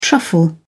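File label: "truffle", British English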